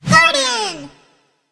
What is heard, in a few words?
guardian appear en